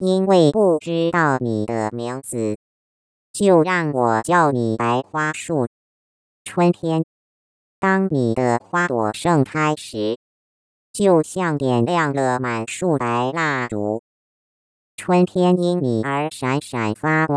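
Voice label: Synthetic Speech, Mandarin singing voice synthesis, Timbre transformation: female => child; female => child(VTL:100/115%, Pitch:140Hz or 280Hz)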